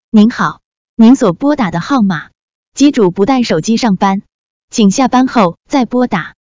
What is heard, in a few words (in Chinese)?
女 电话彩铃